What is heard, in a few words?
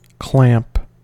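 "clamp", US English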